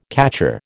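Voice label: "catcher", American English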